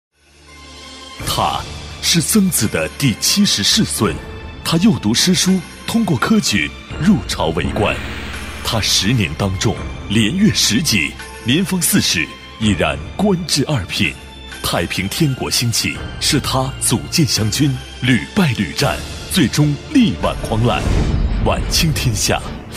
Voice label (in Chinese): 男 广告, 国语男声配音; 曾国藩传奇; 交广主播